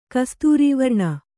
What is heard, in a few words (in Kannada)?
"ಕಸ್ತೂರೀವರ್ಣ", Kannada